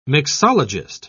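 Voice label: "mixologist", British English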